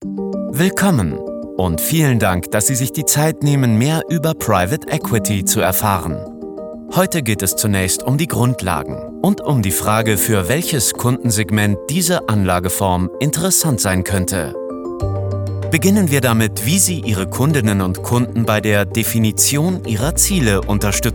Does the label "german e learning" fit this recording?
Yes